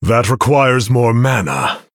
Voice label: Male Human ERROR NEED MANA

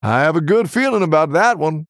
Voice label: Male Human

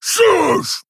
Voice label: Male Orc Attack

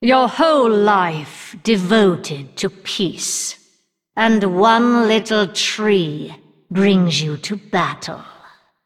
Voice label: Female Undead

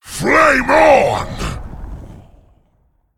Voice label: Male Elemental Attack

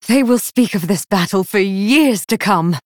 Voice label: Female Night Elf

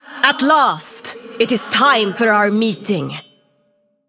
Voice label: Female Iron Vrykul Greetings

